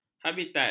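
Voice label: "habitat", Malay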